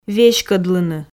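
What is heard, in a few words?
"веськодлыны", Komi, female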